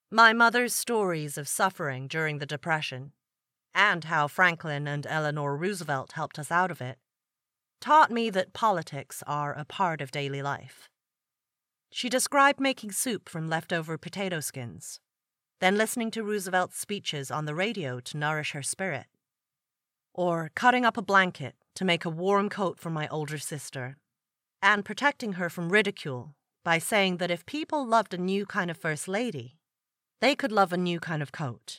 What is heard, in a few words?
General American; My Life On The Road